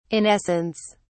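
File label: "in essence", British English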